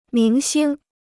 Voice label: "明星", Chinese

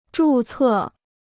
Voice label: "注册", 中国語

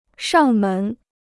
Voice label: "上门", Chinese

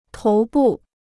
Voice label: "头部", Chinese

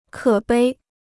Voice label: "可悲", Chinese

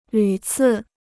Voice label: "屡次", Chinese

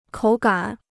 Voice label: "口感", Chinese

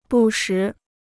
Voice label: "不时", Chinese

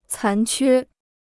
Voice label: "残缺", Chinese

